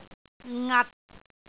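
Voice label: "ngat6", Cantonese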